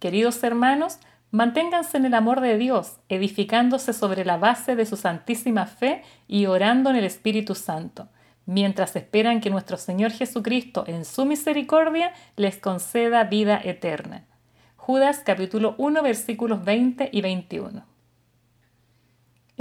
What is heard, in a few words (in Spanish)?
devocional